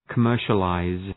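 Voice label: "commercialize", English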